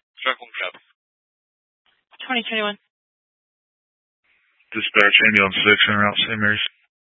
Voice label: Grand Junction Fire Department Dispatch